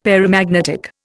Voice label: "paramagnetic", Indian; Dictionary

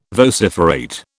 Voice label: "vociferate", British

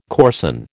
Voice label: "coarsen", American

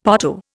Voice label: "potto", Indian; Dictionary

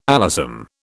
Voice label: "alyssum", British

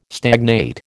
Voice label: "stagnate", American

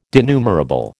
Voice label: "denumerable", American

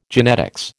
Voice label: "genetics", American

